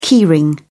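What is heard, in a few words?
"key ring", British English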